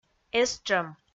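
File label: "эстшӧм", Komi, female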